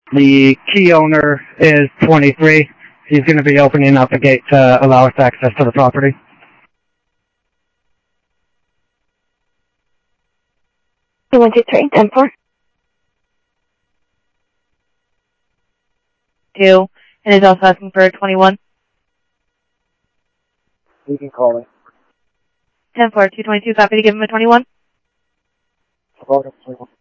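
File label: Sheriff East County, scanner audio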